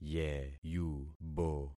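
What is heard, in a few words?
"yeyubo", concatenated syllables; Affixing experiment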